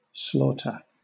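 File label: "slaughter", British English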